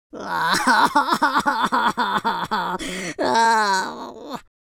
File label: sad; speech